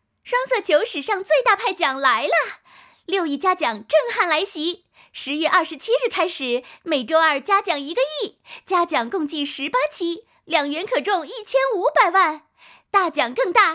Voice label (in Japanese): CM（元気で明るい感じ）, 北京語